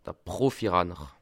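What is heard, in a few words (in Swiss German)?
"profi", Bas Rhin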